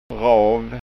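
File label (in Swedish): "rav", Skåne dialect Swedish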